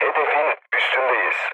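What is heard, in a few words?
Turkish near waypoint, mood low, speech; War Thunder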